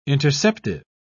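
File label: "interceptive", British English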